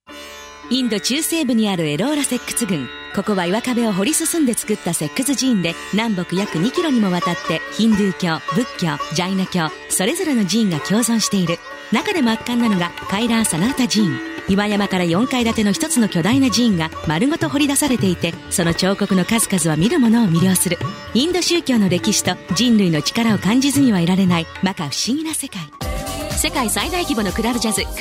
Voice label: Japanese; USA